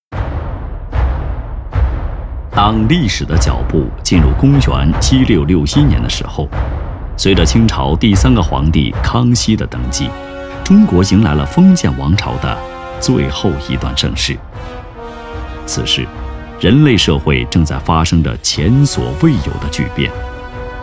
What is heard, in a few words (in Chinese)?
片头 复兴之路（正气）